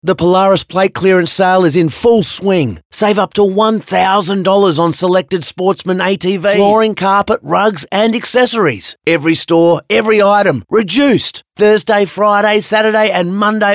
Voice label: Hard Sell